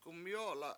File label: he got tired, Washo; gumyO7ola7